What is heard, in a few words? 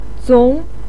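"zong", Mandarin Chinese syllable, second tone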